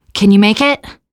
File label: revive friend, teengirl